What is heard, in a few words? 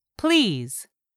"please", English; 3000 words